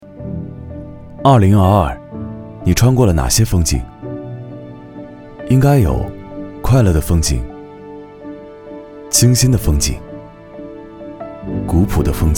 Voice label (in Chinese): 男 旁白 清新 年轻 骑行沟通会